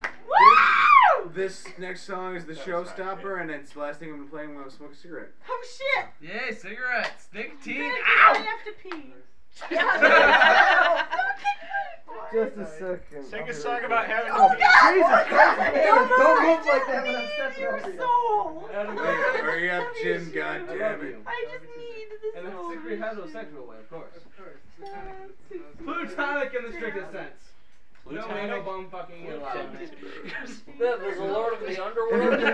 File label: Chatter (Dropped Beer Interlude), BBQ